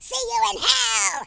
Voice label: kamikaze, speech, Horror